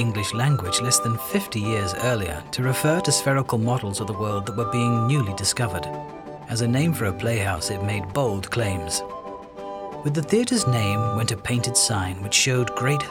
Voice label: Shakespeare, narration